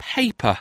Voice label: "paper", English